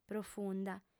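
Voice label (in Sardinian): "profunda", female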